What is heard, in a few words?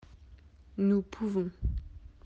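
"pouvoir", French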